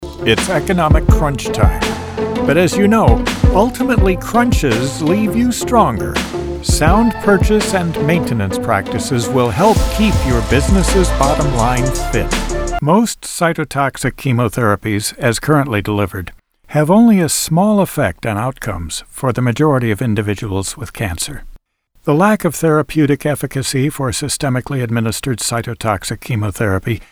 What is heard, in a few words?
Corporate Narration